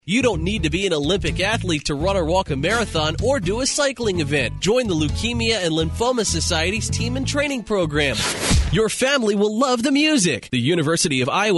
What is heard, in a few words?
male US retail